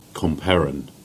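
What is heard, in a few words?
"Perrancoombe", Cornish; place-names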